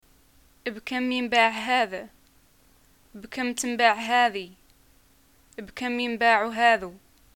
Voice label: shopping how much, arabic